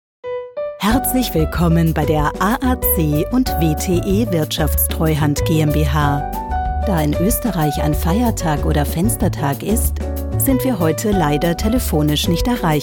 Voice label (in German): telefonansagen; feiertag fenstertag